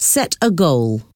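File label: "set a goal", English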